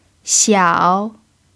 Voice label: "xiao3", Mandarin pinyin